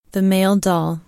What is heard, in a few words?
male doll